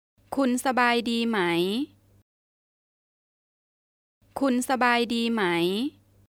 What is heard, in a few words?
How are you, Thai